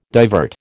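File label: "divert", American English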